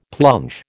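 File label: "plunge", American English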